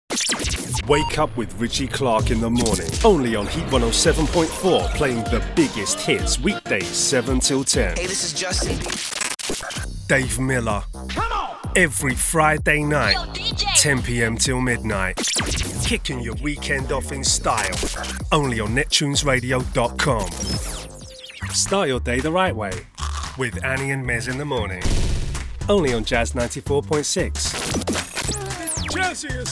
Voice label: Radio Reel